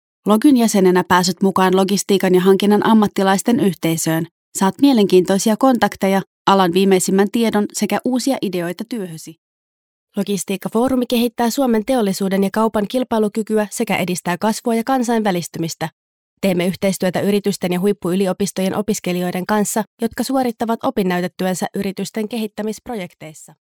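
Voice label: Finnish, Female, narration; European Voices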